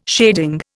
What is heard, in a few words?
"shading", Indian